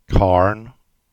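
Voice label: "Carn Farm" Cornish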